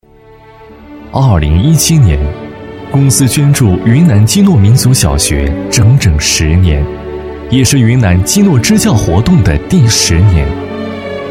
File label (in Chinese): 公益宣传; 云南支教